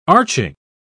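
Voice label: "arching", English, British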